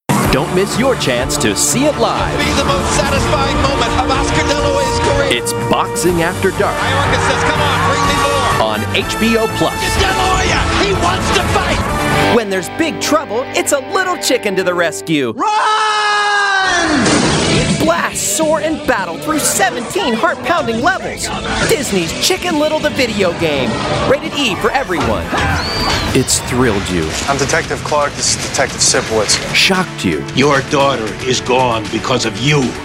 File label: Male, promos